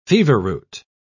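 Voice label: "feverroot", English, British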